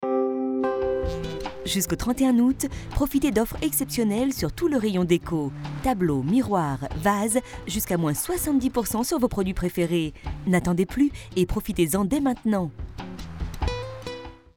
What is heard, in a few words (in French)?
message promotionnel